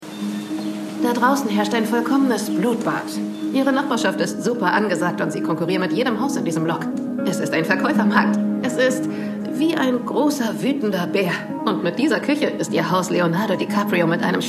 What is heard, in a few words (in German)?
Synchron Maklerin